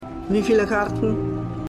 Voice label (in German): Kartenverkaeuferin